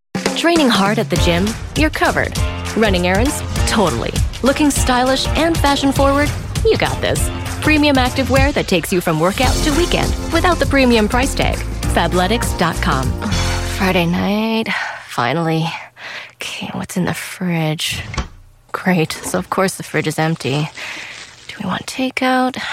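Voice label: F, English Canada, female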